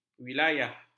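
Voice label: "wilayah", Malay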